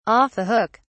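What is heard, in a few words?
"off the hook", British English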